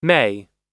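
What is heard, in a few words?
"may", English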